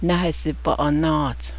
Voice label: i have a cramp in my back, Cheyenne